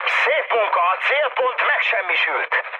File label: bomb success, mood high, speech hun; War Thunder